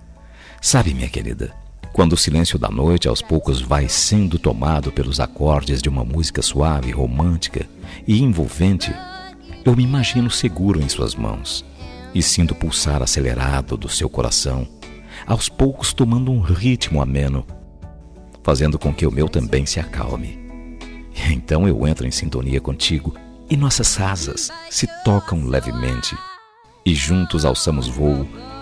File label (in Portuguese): ANIV PARA NAMORADA, telemensagem